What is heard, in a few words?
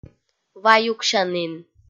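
"ваюксянін", Komi, female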